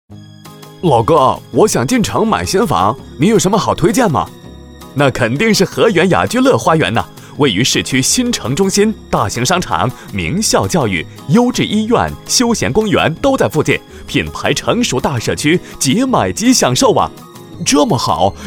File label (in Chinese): 男 中文 广告类 角色类 房产